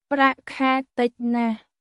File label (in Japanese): クメール語（カンボジア語）の日常会話基本フレーズ